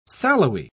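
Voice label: "sallowy", British English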